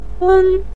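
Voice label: "weng" neutral tone, Mandarin Chinese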